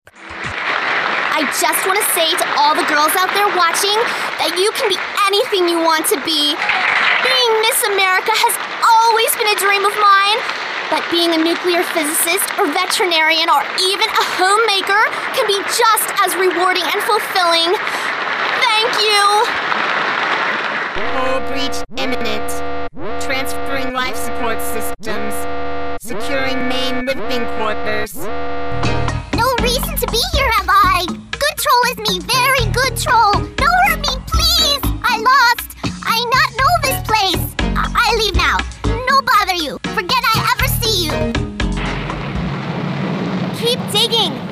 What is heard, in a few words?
Animation